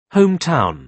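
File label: "home town", British English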